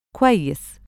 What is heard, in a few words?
"kwayyis", Egyptian Arabic